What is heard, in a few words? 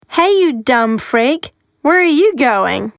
Trash Can, GIRL